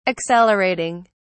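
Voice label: "accelerating", English, British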